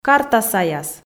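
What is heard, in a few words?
"карта саяс", Komi, female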